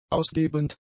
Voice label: "ausgebend", German; adjectives

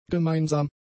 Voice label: "gemeinsam", German; adjectives

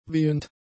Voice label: "wehend", German; adjectives

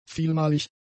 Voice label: "vielmalig", German; adjectives

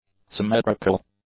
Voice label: "symmetrical", English; adjectives

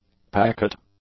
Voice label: "packet", English; nouns

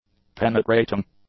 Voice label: "penetrating", English; adjectives

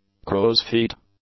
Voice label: "crow's feet", English; nouns

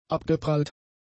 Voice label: "abgeprallt", German; adjectives

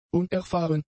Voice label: "unerfahren", German; adjectives

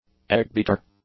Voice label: "eggbeater", English

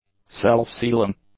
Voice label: "self-sealing", English; adjectives

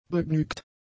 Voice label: "begnügt", German; adjectives

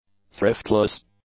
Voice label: "thriftless", English; adjectives

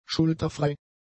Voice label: "schulterfrei", German; adjectives

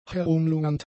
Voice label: "herumlungernd", German; adjectives

